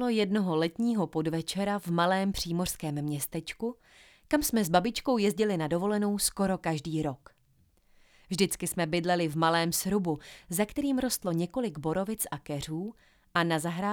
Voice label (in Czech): čte úryvek z knihy; Ami chlapec z hvězd